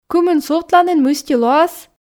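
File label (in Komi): кымын сувтланін мысти лоас, Komi, female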